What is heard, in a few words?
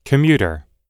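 "commuter", American English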